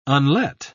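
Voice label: "unlet", English, British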